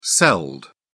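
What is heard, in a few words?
"celled", British English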